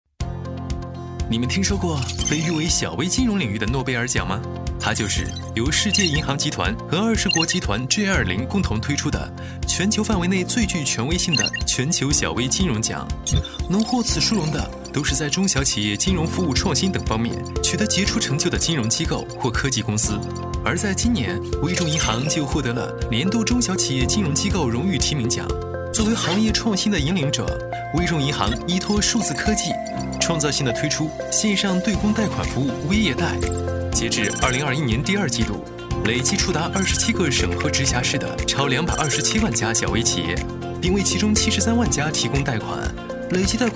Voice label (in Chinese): 男 MG动画; 微众银行